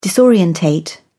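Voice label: "disorientate", English, British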